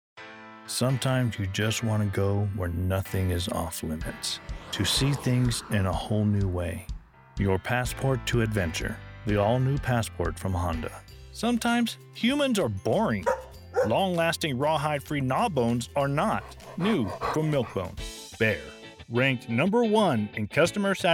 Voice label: Commercial, Voice Over